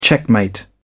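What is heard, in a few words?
"checkmate", British English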